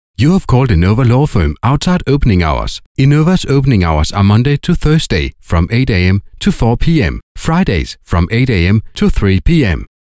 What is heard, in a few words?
english ivr